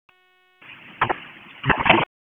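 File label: EMS DISP, radio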